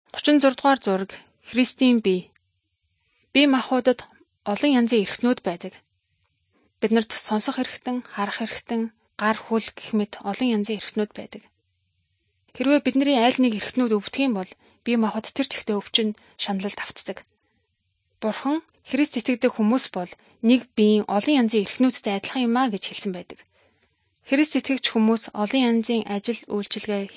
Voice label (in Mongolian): good news mongolian